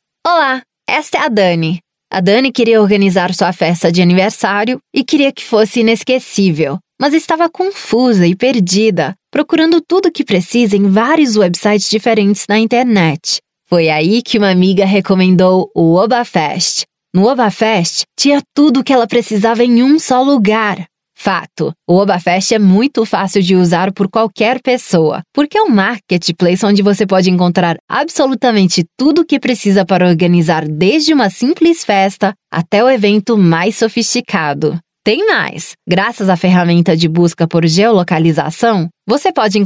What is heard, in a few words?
Brazilian Portuguese Explainer Video, Brazilian Portuguese Female